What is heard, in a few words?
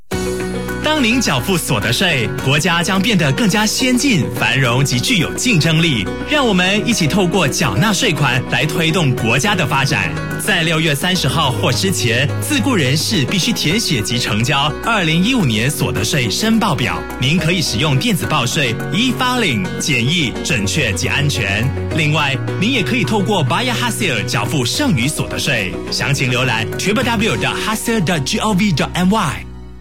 Energetic; income tax